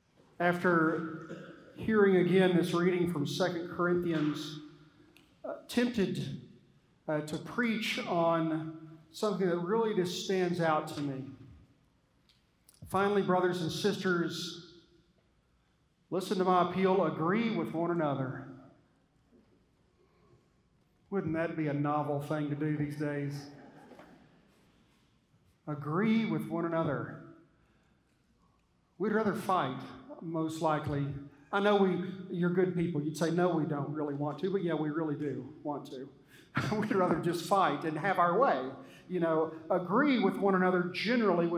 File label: Sermon